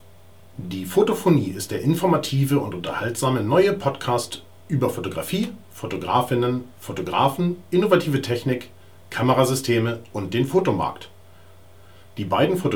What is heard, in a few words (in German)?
VideoMic weit weg; mikrofonierung; fotophonie 046